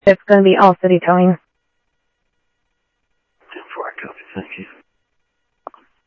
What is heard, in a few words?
Sheriff, scanner audio